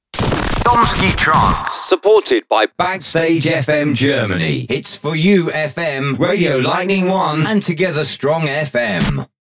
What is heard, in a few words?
Trance 4 STATIONS VOICE OVER